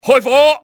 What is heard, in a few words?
open fire, cantonese male; XBOX; enemy